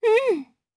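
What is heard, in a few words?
Vox Japanese; DarkFrey; kingsraid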